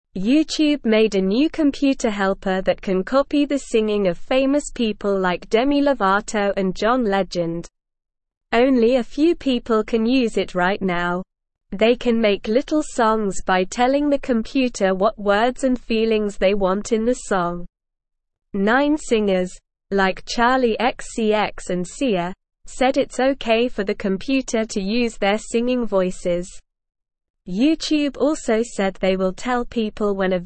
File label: English Beginner SLOW Reading; English Newsroom; YouTubes Dream Track Sing Like Famous People